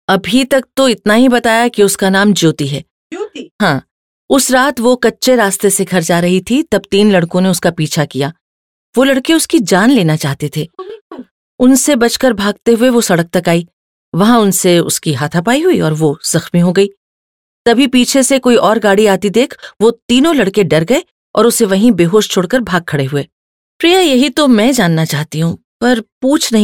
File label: hindi sample, female voice